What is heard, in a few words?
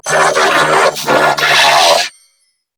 Cover Me Grineer Female; New War; Narmer